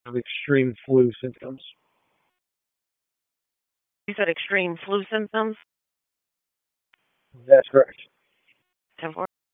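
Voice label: Police Southeast District Disp, radio; Raleigh; wake; p25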